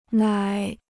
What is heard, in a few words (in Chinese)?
"奶", Chinese